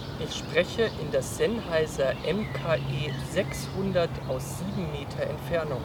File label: Audio Sample 7 Meter; Sennheiser MKE 600